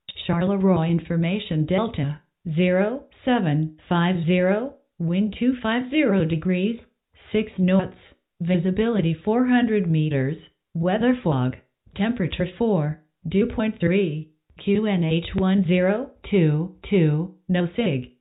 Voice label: atis